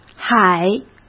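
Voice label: "海", Mandarin Chinese; hsk; chinese-flashcards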